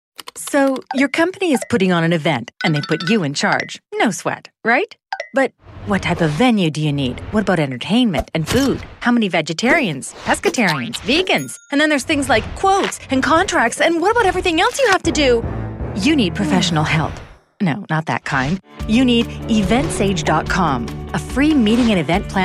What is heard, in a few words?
Voice Over Artist Engaging Real App